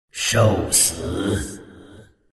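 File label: attack, chinese; dota2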